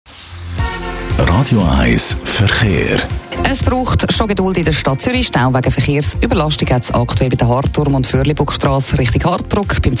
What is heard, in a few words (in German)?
Radio Verkehr; Radio 1; VBZ